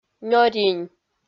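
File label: "нёринь", Komi, female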